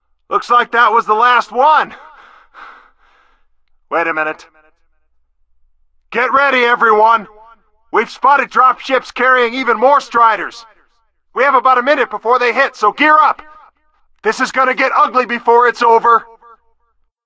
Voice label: loudspeaker dropships; ep2; Source engine